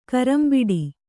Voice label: "ಕರಂಬಿಡಿ", Kannada

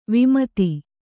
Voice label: "ವಿಮದ", Kannada

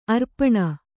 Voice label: "ಆರೋಪಣ", Kannada

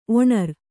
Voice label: "ಒನರ್", Kannada

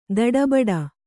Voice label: "ದಡಬಡ", Kannada

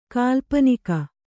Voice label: "ಕಾಲ್ಪನಿಕ", Kannada

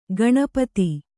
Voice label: "ಗಣಪದ", Kannada

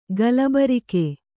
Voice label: "ಗಲಬರಿಕೆ", kannada

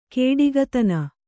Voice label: "ಕೊಡುಗೈತನ", Kannada

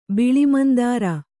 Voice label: "ಬಿಳಿಮಂದಾರ", Kannada

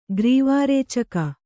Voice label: "ಗ್ರೀವಾರೇಚಕ", Kannada

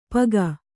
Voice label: "ಪಗ", Kannada

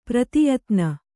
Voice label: "ಪ್ರತಿಯಾತನ", Kannada

